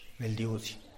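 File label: "beldyusy", type-of-snake, Tlacochahuaya Zapotec; animals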